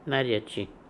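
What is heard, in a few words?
"Naria chi", pronunciations; Fourth Way; Beelzebub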